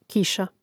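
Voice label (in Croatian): "kiša", Hrvatski; Mrežnik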